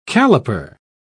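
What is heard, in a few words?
"calliper", British English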